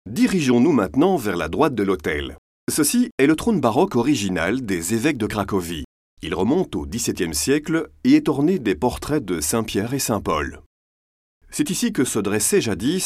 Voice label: AUDIOGUIDE FRENCH; CRACOVIE